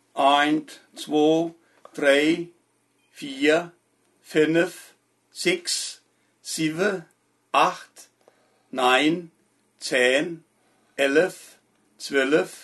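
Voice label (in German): zahlen, Hunsrück; Emmelshausen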